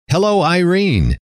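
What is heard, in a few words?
"hello", male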